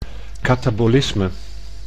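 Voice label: "katabolisme", Dutch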